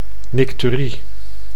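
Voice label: "nycturie", Dutch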